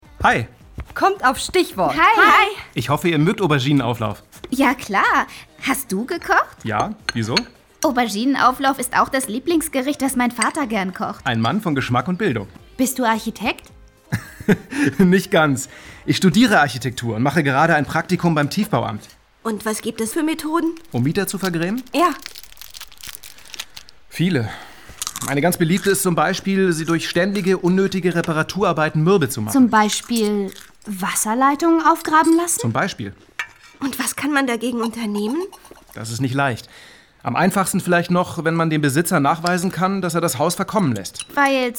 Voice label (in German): Hoerspiel; ausrufezeichen untergrund